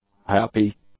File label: "happy", English; adjectives